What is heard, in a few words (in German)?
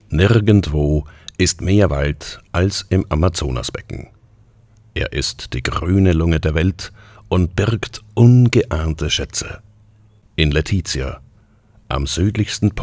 Doku Dschungel